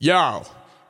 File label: "yo", voice